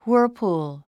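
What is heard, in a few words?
"whirlpool", English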